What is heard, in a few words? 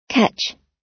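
"catch", US English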